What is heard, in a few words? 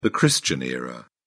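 "christian era", British English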